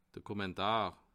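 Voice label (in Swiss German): "kommentar", Bas Rhin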